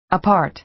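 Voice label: "apart", American English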